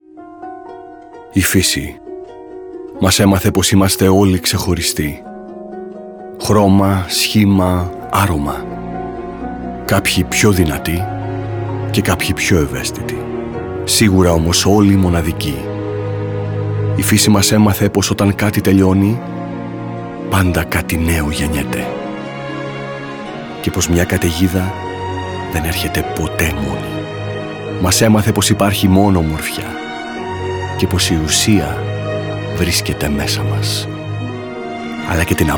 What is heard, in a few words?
male greek commercial